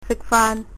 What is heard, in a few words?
bon appetit, cantonese